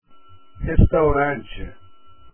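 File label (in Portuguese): "restaurante" Portuguese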